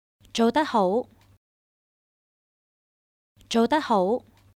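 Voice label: Well done, Chinese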